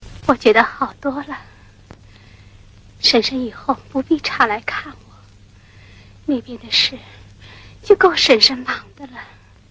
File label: drama